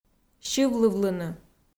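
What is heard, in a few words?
"сьывлывлыны", Komi, female; audio dict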